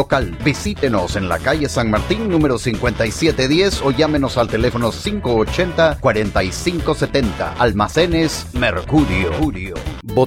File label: Spanish Male